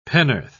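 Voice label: "penn'orth", English, British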